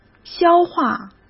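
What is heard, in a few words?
"消化", Mandarin Chinese; chinese flashcards; hsk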